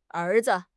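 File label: "er2 zi5", Chinese; HSK1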